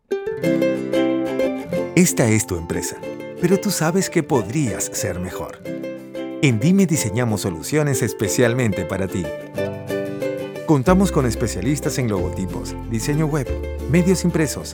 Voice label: male spanish peruvian neutral spanish latam tutorial compilation